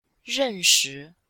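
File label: "ren shi", female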